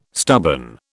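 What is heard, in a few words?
"stubborn", British English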